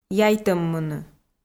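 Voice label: "яйтӧммыны", Komi, female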